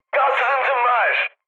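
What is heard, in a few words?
Turkish damaged water, mood high, speech; War Thunder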